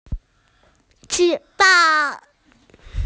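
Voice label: "judiciary", Mandarin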